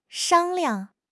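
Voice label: "shang1 liang5", Mandarin Chinese; HSK4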